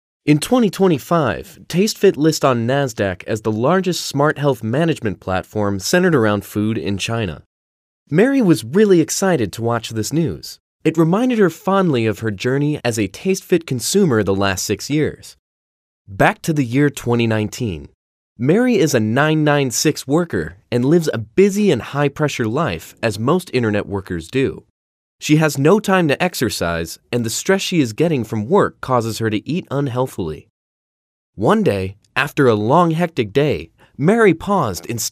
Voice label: 外籍男英 外语 美式英语 MG风格

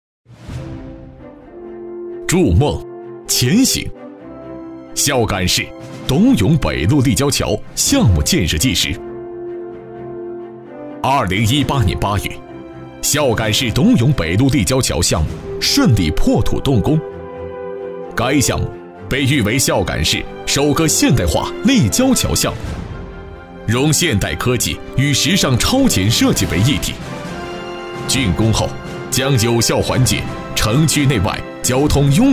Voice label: 男国 专题 汇报 浑厚; 孝感市交通局

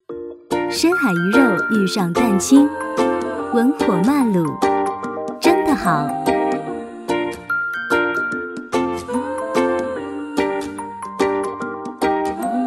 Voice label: 广告 食品饮料 年轻, 女, 普通话; 鱼饼

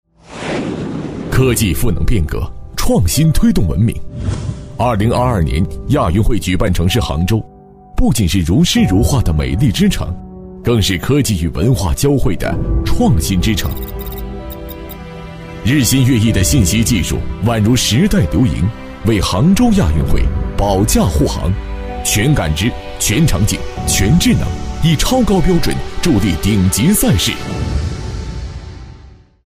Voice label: 男 专题 科技; 杭州亚运会

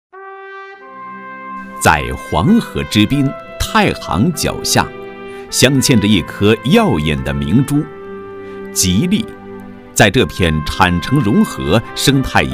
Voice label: 男国 专题 政府 成熟; 区人社局市级文明单位创建

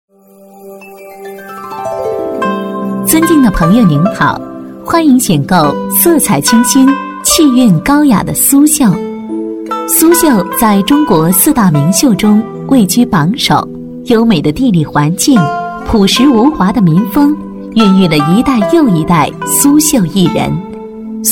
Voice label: 多媒体 产品演示 苏绣

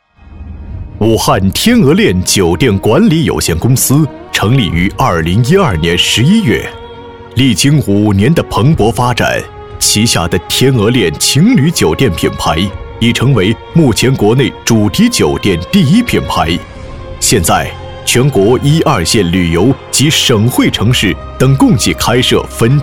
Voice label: 男国 专题 汇报 沉稳; 酒店汇报类

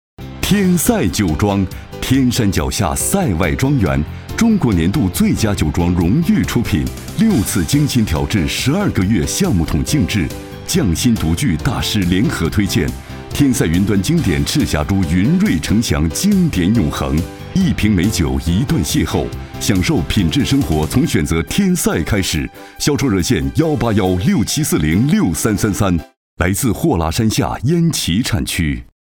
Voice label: 广告 促销 酒广告 成熟

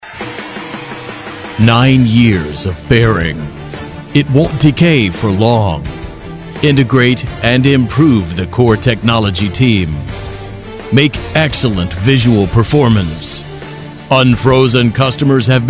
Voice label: 外籍男英 外语 美式英语 大气; 惠安音乐节

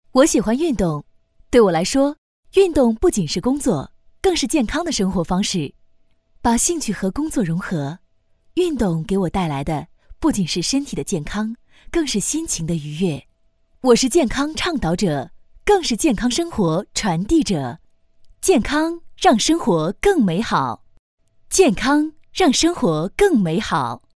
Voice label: 旁白 运动

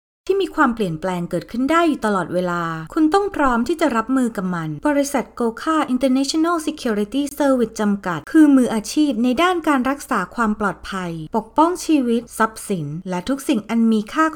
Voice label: 泰语女, 外语; 小语种

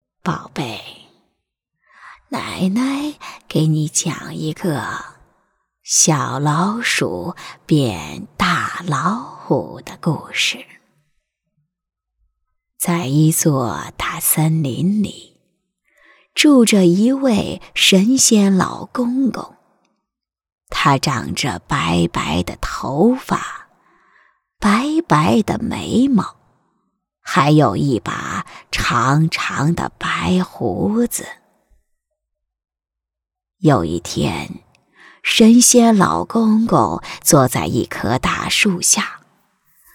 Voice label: 动画 老人 模仿老奶奶

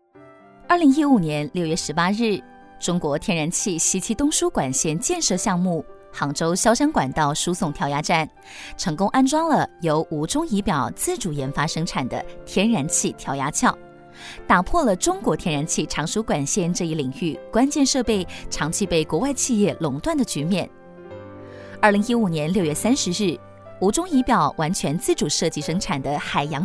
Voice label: 女 专题 新闻 新闻

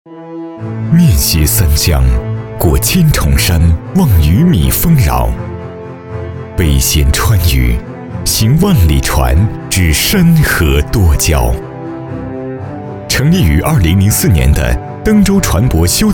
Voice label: 专题 企业 稳重, 男; 登舟载梦铸远航

